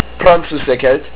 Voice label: "French", Estonian